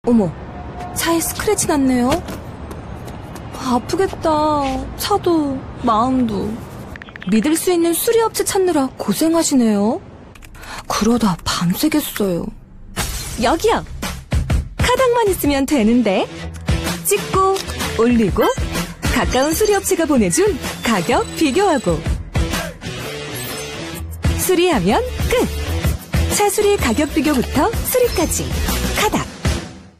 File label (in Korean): 연기톤 밝은 30대; 카닥